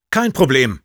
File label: positive vocalization, de; Kein Problem.; Sniper